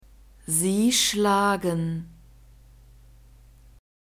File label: "sie schlagen", German; verbs present tense irregular